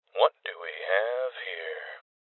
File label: Voices OpenSafe Male